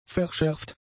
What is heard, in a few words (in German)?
"verschärft", german; adjectives